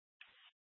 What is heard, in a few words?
Fire Departments, scanner